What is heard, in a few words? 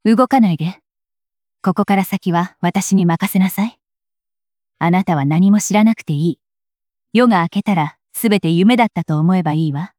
anime voice